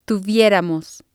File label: "tuvieramos", Spanish